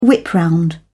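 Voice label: "whip-round", British English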